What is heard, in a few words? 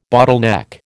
"bottleneck", American